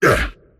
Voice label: pain; Pudge

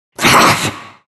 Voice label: anger; Broodmother

